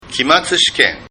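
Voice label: "kimatsushiken", Japanese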